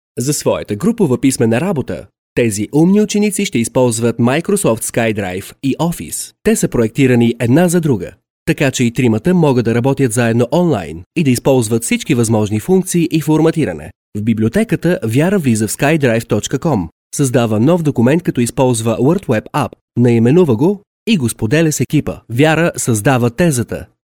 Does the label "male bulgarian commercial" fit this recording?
Yes